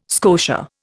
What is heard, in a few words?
"scotia", Indian